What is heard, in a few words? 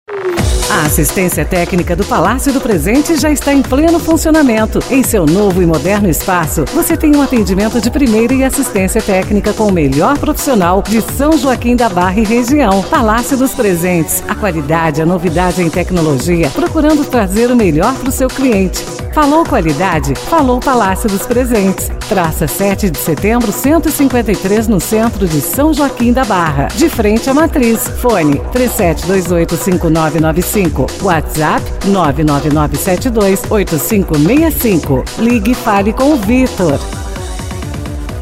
portuguese promos